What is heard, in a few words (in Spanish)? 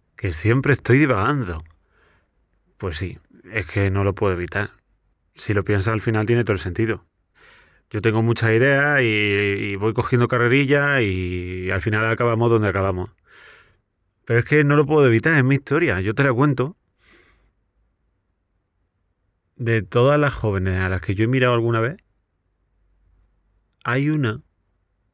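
Andaluz Natural, locución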